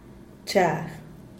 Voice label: "chag" una for tortilla; Díaz Ordaz

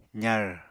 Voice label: "zar", Apatani

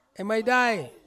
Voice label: "maidai", dont want, Ansus

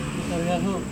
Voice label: "neta vehaho", brother, Serewen

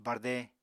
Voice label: "barde", Apatani

